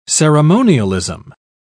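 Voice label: "ceremonialism", British English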